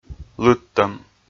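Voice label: "лыдтӧм", Komi, male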